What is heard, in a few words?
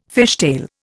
"fishtail", Indian